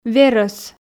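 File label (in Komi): "верӧс", Komi, female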